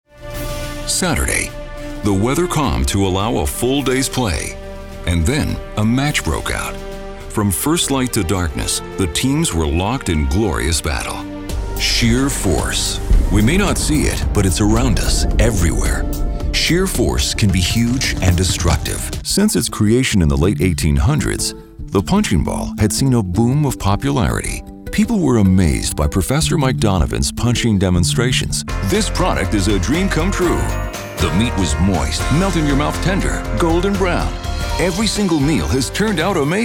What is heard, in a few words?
Narration